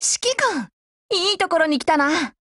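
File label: hello, voice, Japanese; GF Alarm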